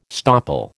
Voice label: "stopple", American